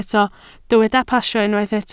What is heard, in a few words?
"pasio", Welsh